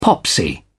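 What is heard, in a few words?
"popsy", British English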